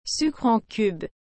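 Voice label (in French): "sucre en cube", French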